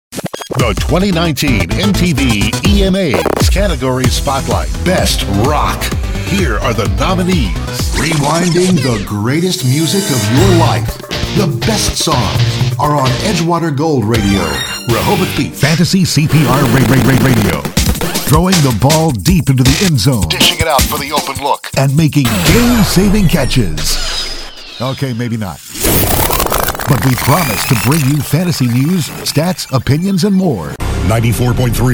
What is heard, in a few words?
imaging